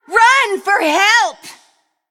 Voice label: Female Undead Attack

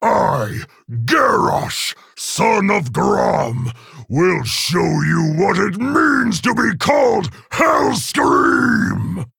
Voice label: Male Orc Intro; Garrosh Hellscream

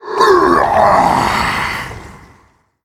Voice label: Male Elemental Death

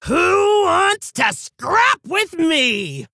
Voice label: Female Orc Play

